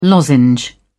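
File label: "lozenge", British English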